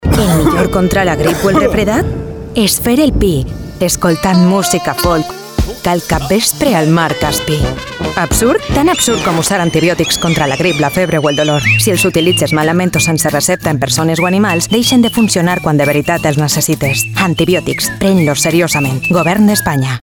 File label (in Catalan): cuña val